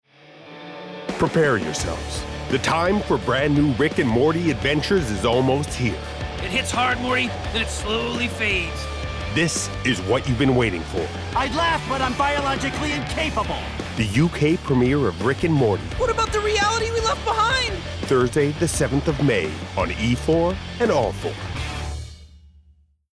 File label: Promo; RickAndMorty